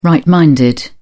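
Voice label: "right minded", British English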